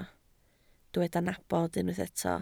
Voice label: "nabod", Welsh

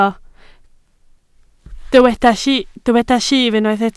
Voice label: "llif", Welsh

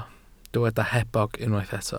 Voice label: "hebog", Welsh

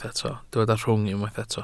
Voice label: "rhwng", Welsh

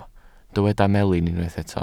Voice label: "melin", Welsh

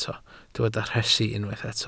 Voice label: "rhesi", Welsh

